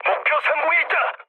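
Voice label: Korean near waypoint mood medium; speech; War Thunder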